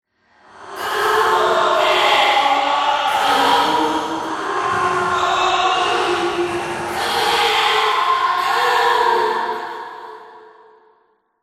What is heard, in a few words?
Whispers Tense; Silent Hill Downpour